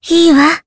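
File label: Vox Happy, Japanese; Jane; kingsraid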